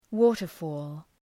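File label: "waterfall", English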